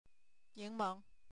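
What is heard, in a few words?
"ning2meng2", Mandarin Chinese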